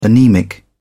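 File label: "anaemic", British English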